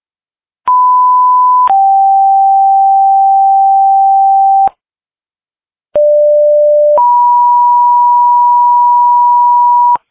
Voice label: Waverly Fire, dispatch